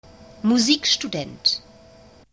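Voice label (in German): "Musikstudent", German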